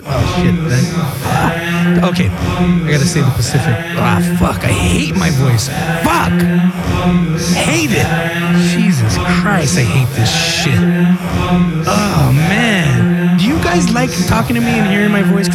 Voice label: Radio Pod Promo

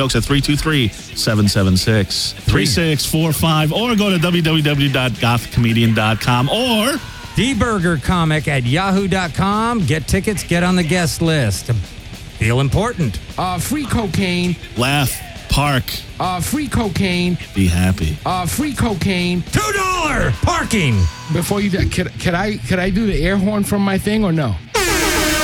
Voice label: comedy show promo; insidejokes

